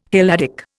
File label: "Helladic", Indian